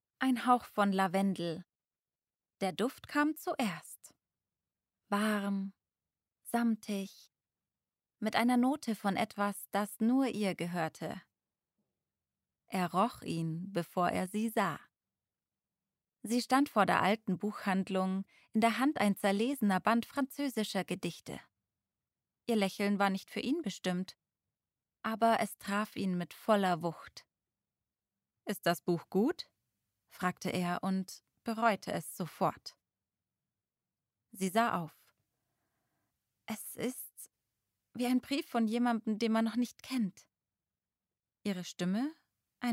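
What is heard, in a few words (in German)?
Romanze, Sprecherin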